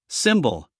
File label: "symbol", us male